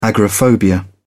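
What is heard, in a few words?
"agoraphobia", British English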